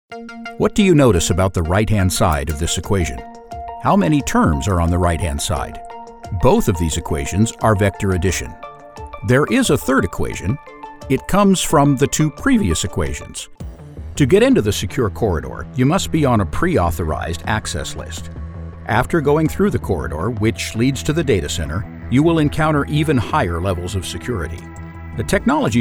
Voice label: eLearning Narration